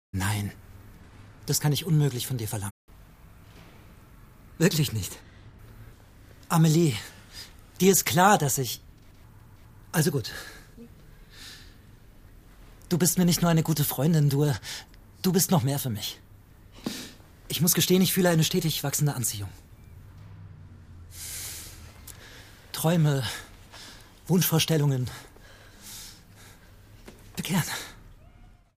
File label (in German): Synchron Deut Franz Komödie